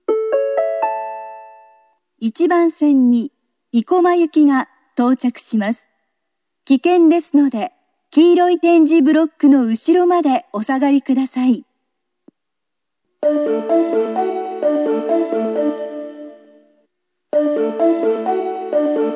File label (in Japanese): 生駒行き, 中央線車内放送・駅放送; 生駒行き3; (メロディー更新)